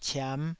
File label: "ciam5", taiwanese